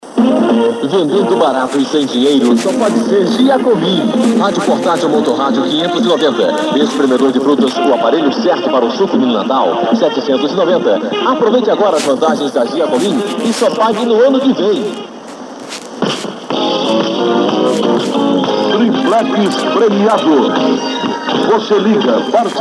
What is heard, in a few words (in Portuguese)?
Intervalo Comercial Radio AM